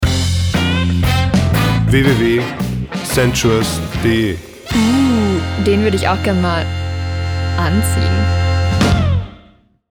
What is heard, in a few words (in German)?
Werbung Reminder; Sentshuas